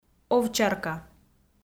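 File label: "овчарка", Komi, female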